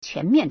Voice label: "qian2 mian4", Chinese